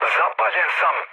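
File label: Serbian on fire mood low, speech; War Thunder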